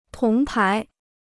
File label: "铜牌", Chinese